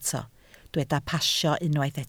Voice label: "pasio", Welsh